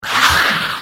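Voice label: Hello, Vo; Morrowind GOTY GOG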